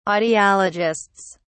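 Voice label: "audiologists", British English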